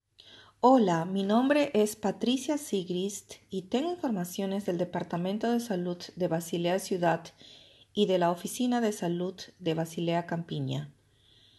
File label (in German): spanisch; kantonsarztlicher dienst; medizinische dienste; informationen in verschiedenen sprachen